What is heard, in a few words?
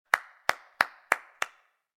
stand Happy; Keepsake